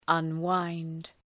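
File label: "unwind", English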